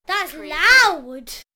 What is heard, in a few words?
Child says That's loud; beanstalk audio